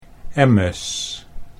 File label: "emmes", Dutch